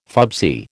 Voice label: "fubsy", American

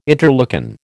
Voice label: "interleukin", American

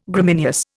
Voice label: "Gramineous", Indian

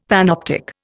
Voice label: "panoptic", Indian; Dictionary

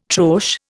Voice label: "troche", Indian

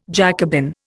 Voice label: "Jacobin", Indian

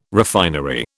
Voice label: refinery, British

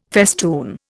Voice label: "festoon", Indian

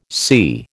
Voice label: "sea", American

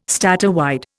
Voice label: "statewide", Indian

